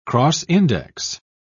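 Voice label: "cross-index", British English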